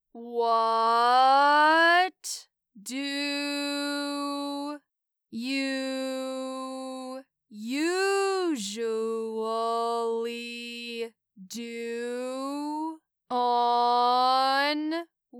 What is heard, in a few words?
Dialogue Slow